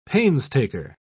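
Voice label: "painstaker", British English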